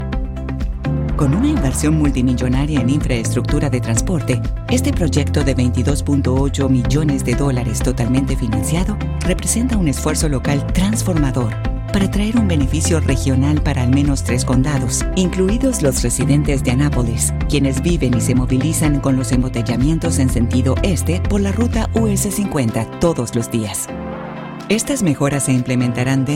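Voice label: female, Latin American Spanish, corporate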